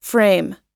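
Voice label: "frame", English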